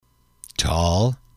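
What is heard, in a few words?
"tall", English, male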